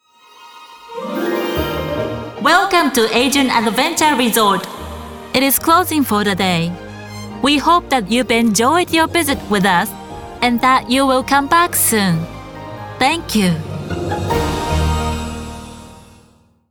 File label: close announce en